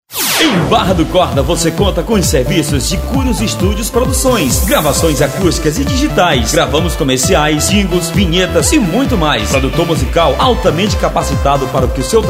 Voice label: comercial radio

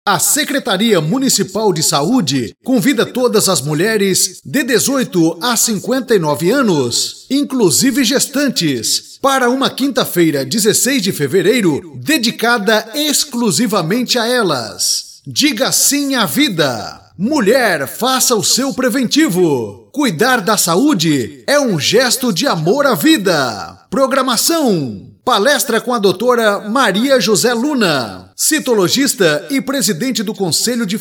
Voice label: Chamada Prefeitura, Vinhetas Gospel; Prefeitura de São Felix de Balsas

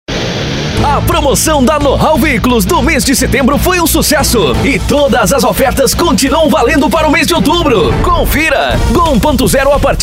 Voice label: voz jovem, varejo, padrão; Studio e Produtora Valentina

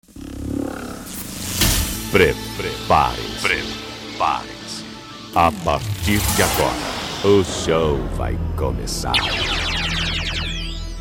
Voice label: vinheta preparese, forrozao

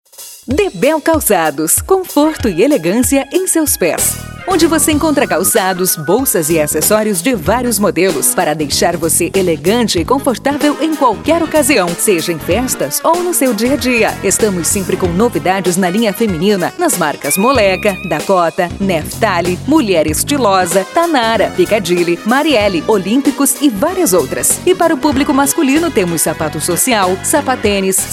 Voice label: spot institucional; d'bell calçados

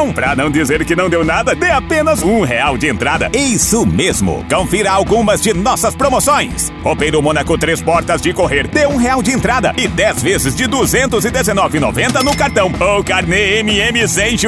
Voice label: lojas mm locutor

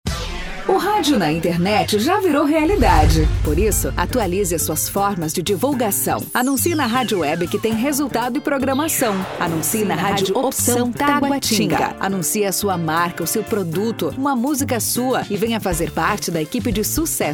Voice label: web radio locutora

